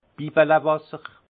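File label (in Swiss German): "bibbelewasser", alsace Haut-Rhin; vin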